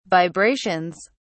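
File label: "vibrations", British English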